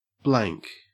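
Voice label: "blank", English, Australian accent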